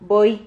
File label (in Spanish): "voi", Silabas